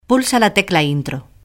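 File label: "pulsa intro", Spanish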